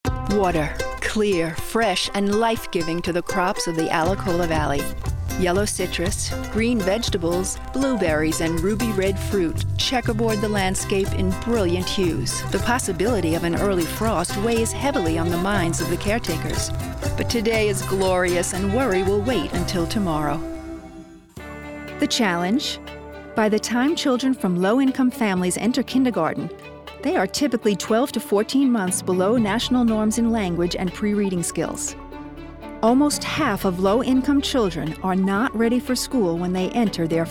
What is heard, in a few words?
Narration